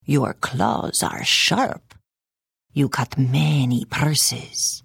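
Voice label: Hello, Khajiit female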